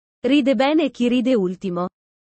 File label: italian sayings about life